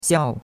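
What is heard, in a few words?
"xiao4", Mandarin Chinese; Cours-de-Chinois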